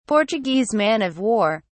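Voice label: "portuguese man-of-war", British English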